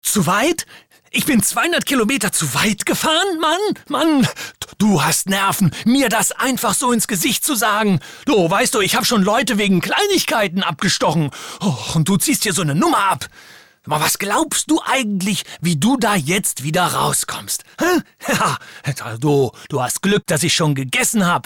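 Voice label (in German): synchron, sprecher; LOFT VOICES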